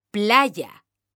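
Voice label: "playa", Spanish, female; mekanta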